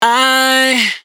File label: "Aye", adlib, Dry Vocals; Cm; Raw Vocals; Adlibs